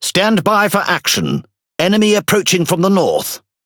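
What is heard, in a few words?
MESSAGE FIND CONVOY, British English; PVE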